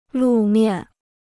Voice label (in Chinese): "路面", Chinese